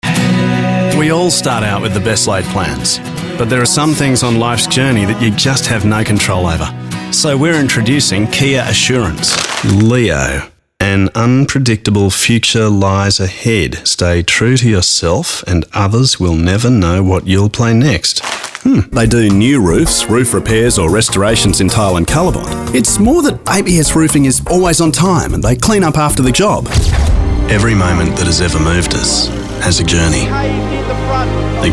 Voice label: natural

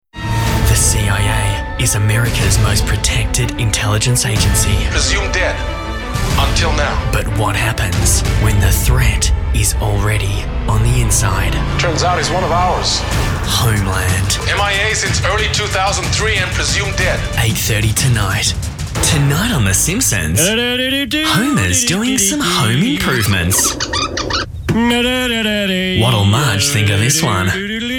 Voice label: promos

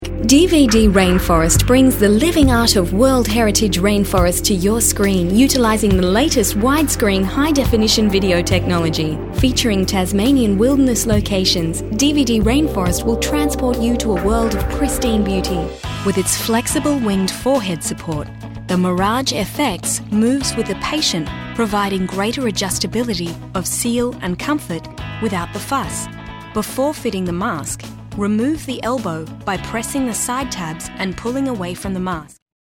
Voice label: Corporate

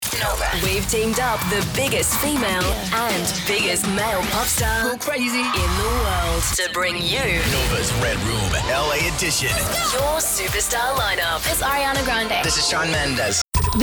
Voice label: Promo

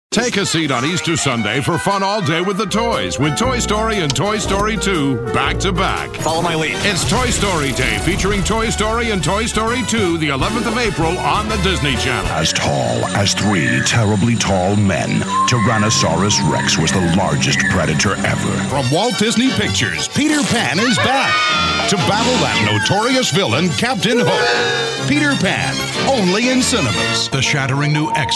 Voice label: promos

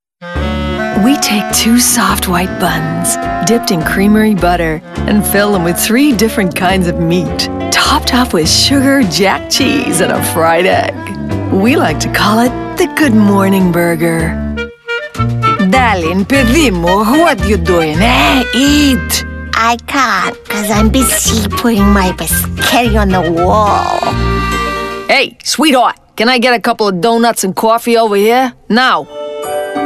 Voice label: accents

